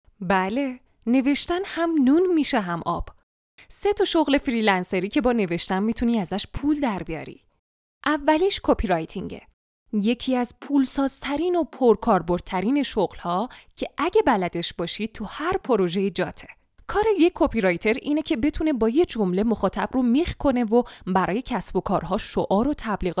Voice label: narrator